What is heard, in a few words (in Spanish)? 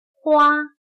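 "花", chino mandarin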